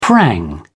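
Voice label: "prang", English, British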